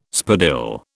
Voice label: "spadille", British